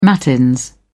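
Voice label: "matins", British English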